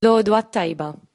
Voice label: good morning, Maltese